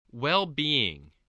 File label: "well-being", spelling